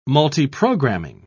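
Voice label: "multiprogramming", British English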